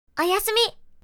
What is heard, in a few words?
Good night, anime girl character, japanese voice over